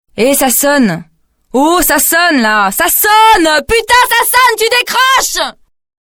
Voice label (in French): Putain ça sonne ( Sonnerie pour telephone portable); Ringtones